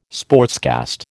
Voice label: "sportscast", American

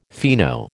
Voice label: "fino", American

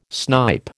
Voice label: "snipe", American

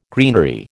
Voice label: "greenery", American

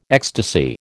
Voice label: "ecstasy", American

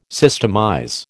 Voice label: "systemize", American

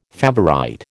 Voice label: "favourite", American

